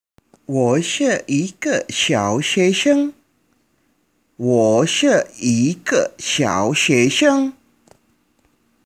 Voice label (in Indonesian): Percakapan; 阅 读 – Membaca; pelajaran kedua